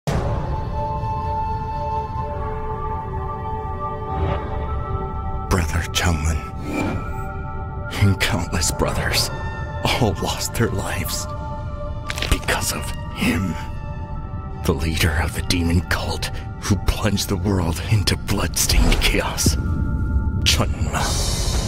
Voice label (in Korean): 영어 남 케릭터; 화산귀환PV 스토리화산역